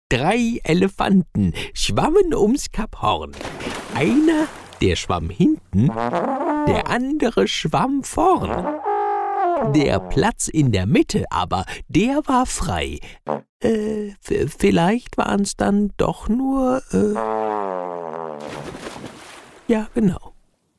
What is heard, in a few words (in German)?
Elefanten Comic, Sprecher